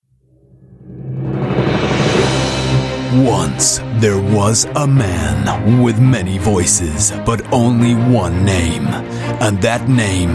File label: UK English Showreel